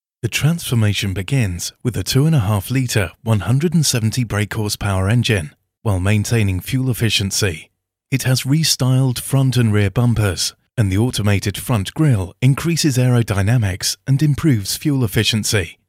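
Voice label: elearn English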